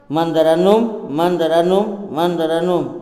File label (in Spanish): presidente, Tojolabal